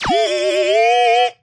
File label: super resonant vox like